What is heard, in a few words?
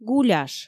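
"гуляш", Komi, female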